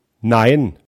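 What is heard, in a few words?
"nein", German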